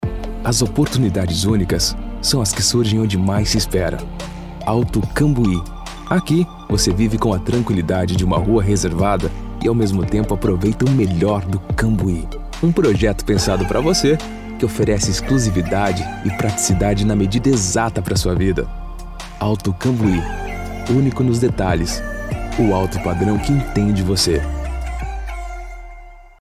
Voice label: SPOT; ALTO CAMBUI